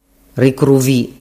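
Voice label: "ricruvi", Sicilian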